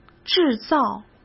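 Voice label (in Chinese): "制造", Mandarin Chinese; chinese-flashcards; hsk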